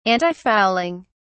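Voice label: "antifouling", British English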